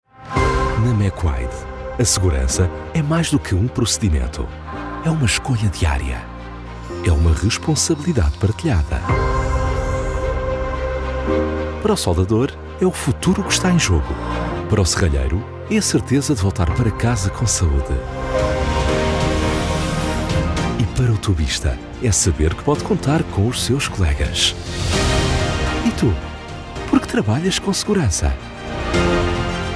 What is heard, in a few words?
portuguese voice, portuguese corporate